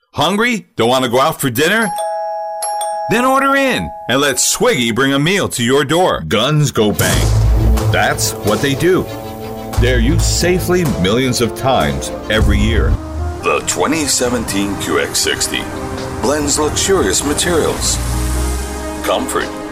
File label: comm sizzle, Voice Over Demos